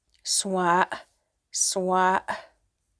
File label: cougar, syilx okanagan; syilx okanagan partnerships